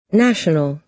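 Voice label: "national", American English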